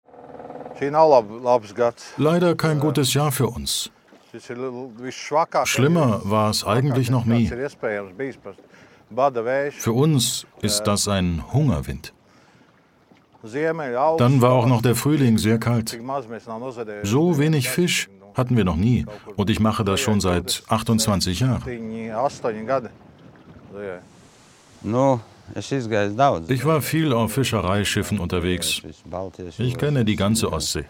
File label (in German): Voiceover, Sprecher; warme, ausrucksstarke Stimme; Arte